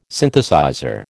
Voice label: "Synthesizer", American